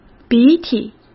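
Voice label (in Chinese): "鼻涕", Mandarin Chinese; chinese flashcards; hsk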